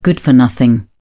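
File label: "good for nothing", British English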